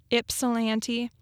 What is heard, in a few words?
"Ypsilanti", Michigan pronunciation